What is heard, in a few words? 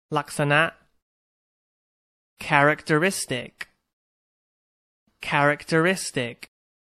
"characteristic", English; Science New Vocabulary